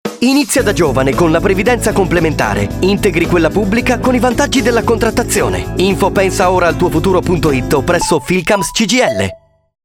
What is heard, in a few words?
Radio; Filcams CGIL